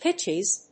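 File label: "pitches", English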